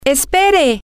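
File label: wait, spanish, female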